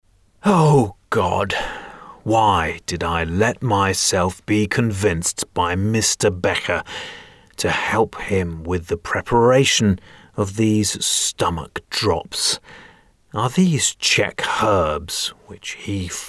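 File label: male, English, drama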